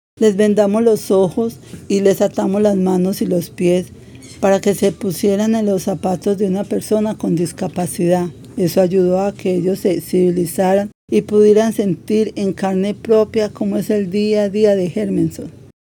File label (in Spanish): Mujer Adulta; casting trineo tv